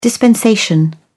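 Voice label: "dispensation", English, British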